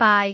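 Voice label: "baai3", Cantonese; microsoftHiuGaai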